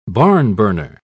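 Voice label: "barnburner", British English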